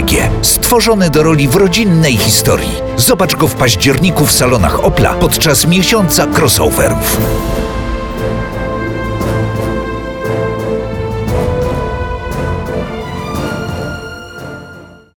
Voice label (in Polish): reklama crossland